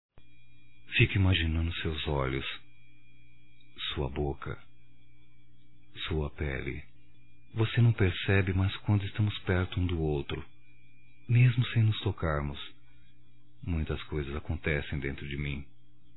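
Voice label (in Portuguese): voz, Erótica, female, Telemensagens; Telemensagens 2017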